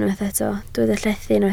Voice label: "llethu", Welsh